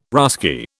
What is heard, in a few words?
"Russki", British